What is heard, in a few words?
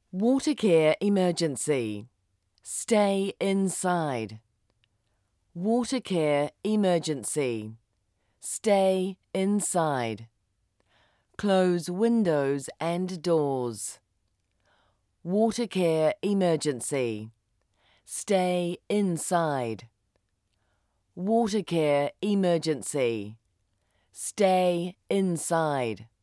sound system shelter in place; barton